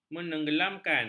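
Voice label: "menenggelamkan", Malay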